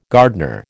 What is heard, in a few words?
"gardener", American